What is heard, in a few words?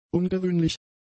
"ungewöhnlich", German; adjectives